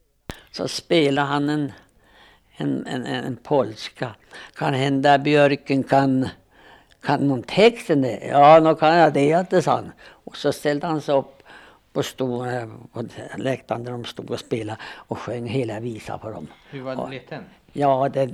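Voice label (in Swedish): Björklinge Uppland, Svenska sagor, dialekter; Folkminnen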